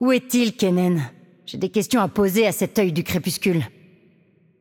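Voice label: voice over, French; Game Outro; bloom minigame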